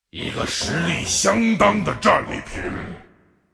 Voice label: attack, zh; 雷恩加尔（英雄联盟）